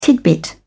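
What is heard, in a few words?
"tidbit", British English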